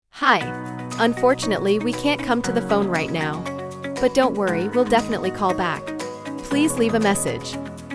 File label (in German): lustig Ansage